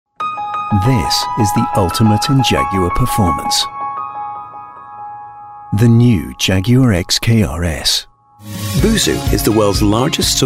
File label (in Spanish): Masculino